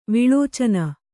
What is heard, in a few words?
"ವಿಲೋಚನ", kannada